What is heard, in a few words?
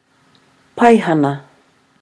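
"paihana", Māori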